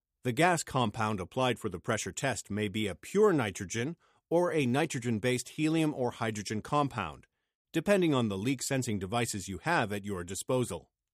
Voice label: e Learning